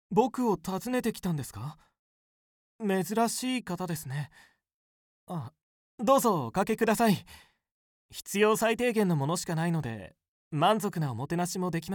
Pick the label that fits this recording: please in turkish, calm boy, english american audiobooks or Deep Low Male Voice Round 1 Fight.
calm boy